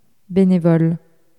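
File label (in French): "bénévole", French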